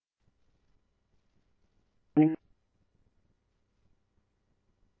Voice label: scanner, Phoenix Fire; PHXFD K2; RWC; Thompson Peak High